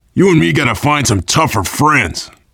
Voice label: double death response, voice, biker; Left 4 Dead 2; survivor